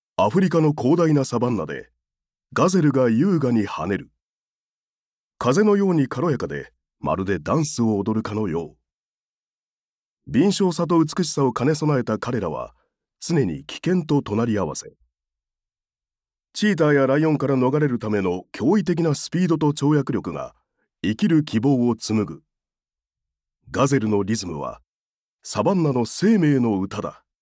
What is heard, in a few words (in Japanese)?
ナレーション ナチュラル系